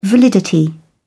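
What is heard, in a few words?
"validity", British English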